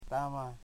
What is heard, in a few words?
"thirtytwo", Foe